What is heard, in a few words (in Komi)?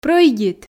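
"прӧйдит", Komi, female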